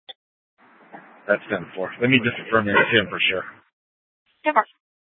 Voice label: Scottsdale PD Sth Dispatch, scanner